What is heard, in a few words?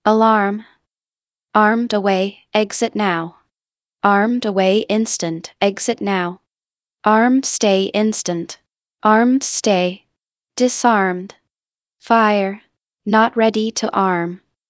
voice, alarm system